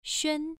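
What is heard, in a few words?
"xuan1", Chinese pronunciation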